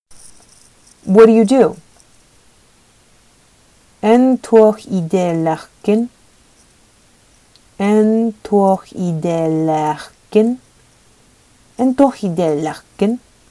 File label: What do ou do, Sakha